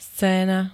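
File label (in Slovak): "scéna", Slovak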